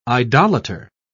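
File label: "idolater", English, British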